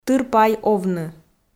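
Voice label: "тыр пай овны", Komi, female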